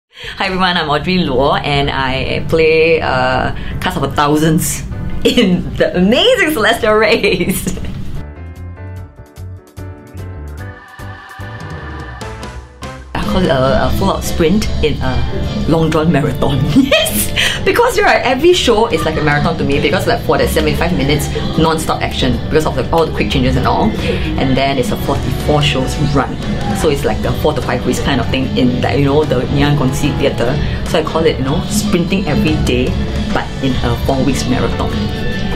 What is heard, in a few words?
Asian English; BTS The Amazing Celestial Race